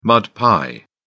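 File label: "mud pie", British English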